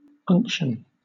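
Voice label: "unction", British English; pronunciations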